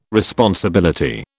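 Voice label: "responsibility", British English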